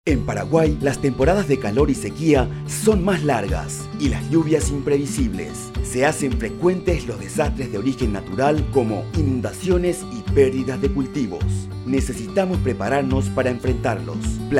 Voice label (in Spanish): Spot Radial; Altervida